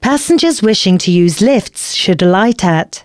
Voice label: announcements, female